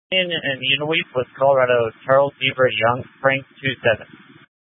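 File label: Incident Tac 3, Scanner audio recording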